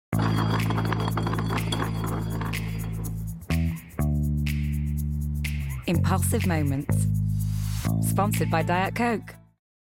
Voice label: Diet Coke Commercial